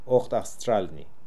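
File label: "Okhtastralnee", pronunciations; RUS; Fourth Way; Beelzebub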